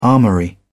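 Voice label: "armoury", British English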